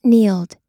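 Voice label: "kneeled", US English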